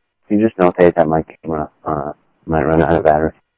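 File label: Sheriff East County, scanner audio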